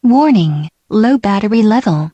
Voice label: Low Battery, Voice, DE